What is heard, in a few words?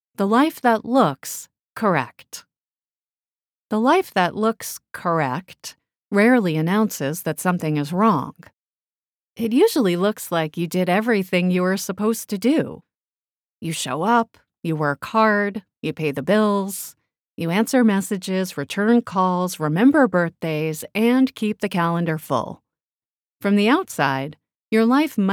Warm Empathetic Relatable Self Help VO